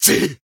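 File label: damaged, Japanese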